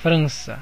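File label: "france", Brazilian Portuguese; gcompris; geography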